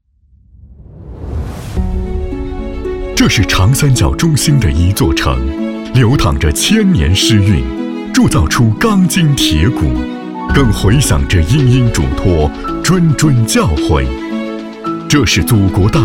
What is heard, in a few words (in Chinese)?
男 电网专题; 一流马电